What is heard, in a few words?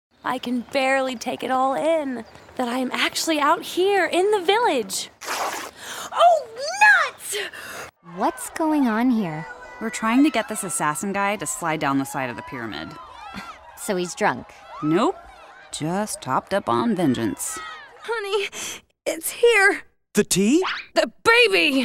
Character